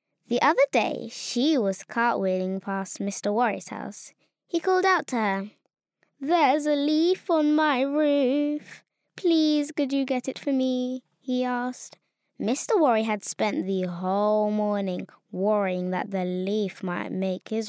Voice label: girl british voice over character, english british